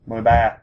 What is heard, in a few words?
thirteen vietnamese